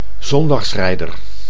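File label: "zondagsrijder", Dutch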